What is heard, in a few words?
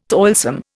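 "toing and froing", Indian; Dictionary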